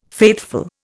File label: "faithful", Indian